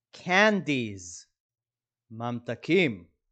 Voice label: "candies" – "ממתקים"